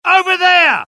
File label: alert, dialogue UK; mohaa